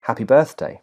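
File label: Happy birthday British English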